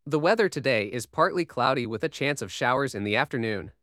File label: Male; TTS Overview